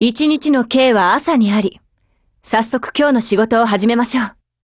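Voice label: HELLO Japanese, Voice; GF Alarm; Contender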